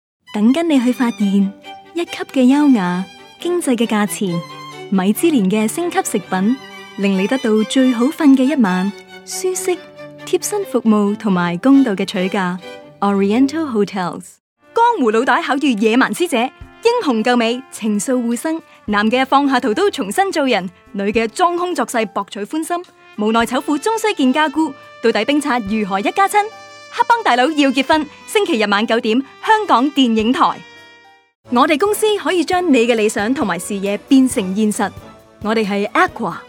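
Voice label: Cantonese Mega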